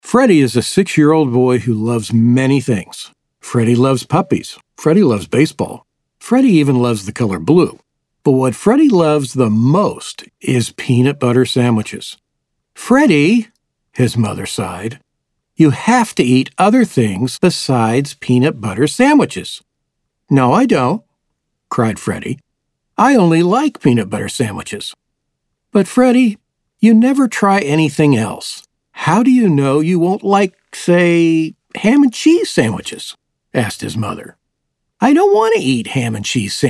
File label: Childrens Audiobook